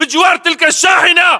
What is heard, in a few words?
landmark near truck, battle chatter, english